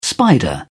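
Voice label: "spider", British English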